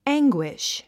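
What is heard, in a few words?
"anguish", English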